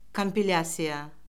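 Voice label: "kompilyasiya", Azerbaijani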